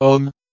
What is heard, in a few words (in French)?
"homme", French; Fonetica